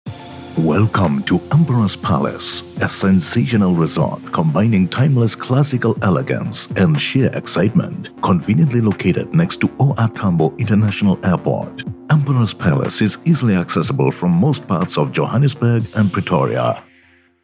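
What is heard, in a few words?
Voice Overs, South Africa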